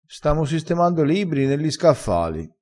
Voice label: "stamo", crespinese

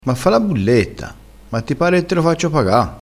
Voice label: "bulletta", dialettale

